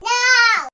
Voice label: "wow", toddler